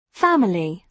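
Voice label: "family", British English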